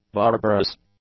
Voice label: "barbarous", English; adjectives